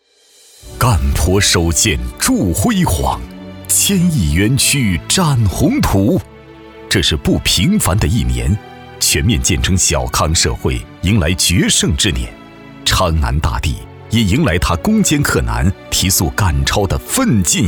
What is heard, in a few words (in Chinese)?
男 政府专题 大气浑厚; 南昌县